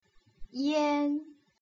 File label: "ian", putonghua